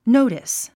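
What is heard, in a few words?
"notice", English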